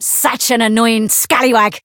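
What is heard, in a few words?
encounter fear; miranda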